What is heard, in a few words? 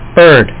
bird, English; WordMatch